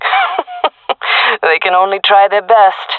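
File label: round start, jungle female; vo; agents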